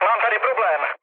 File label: aircraft damaged, speech cze, mood low